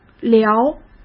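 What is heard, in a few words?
"聊", Mandarin Chinese; chinese-flashcards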